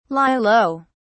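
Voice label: "lie low", British English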